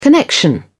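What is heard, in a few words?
"connection", British English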